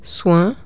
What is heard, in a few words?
French "care"